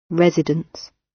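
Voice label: "residence", British English; The arts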